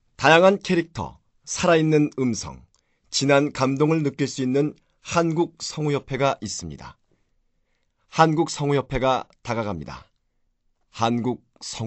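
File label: Korean male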